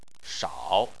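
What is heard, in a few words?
"shao" tone 3, Chinese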